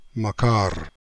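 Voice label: "Makar", pronunciations; Fourth Way; Beelzebub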